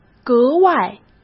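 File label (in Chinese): "格外", Mandarin Chinese; chinese flashcards; hsk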